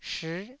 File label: "shii9", taiwanese Syllables